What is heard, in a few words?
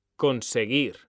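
"CONSEGUIR", Spanish, male; mekanta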